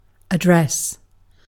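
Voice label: "address", British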